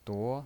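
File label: "duo" Mandarin Chinese, second tone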